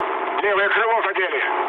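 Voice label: Russian left wing mood low, speech; War Thunder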